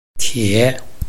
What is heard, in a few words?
"tie3", Chinese